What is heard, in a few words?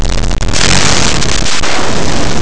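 "nuevo", Spanish; laboratori fonetica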